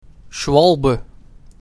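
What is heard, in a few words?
"Schwalbe", Dutch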